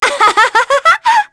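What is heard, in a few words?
vox Happy, English; kingsraid; voices heroes en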